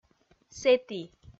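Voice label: "сэті", Komi, female; kpv audio dict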